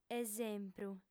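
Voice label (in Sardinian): "esempru", female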